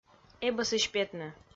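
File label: "эбӧсысь петны", Komi, female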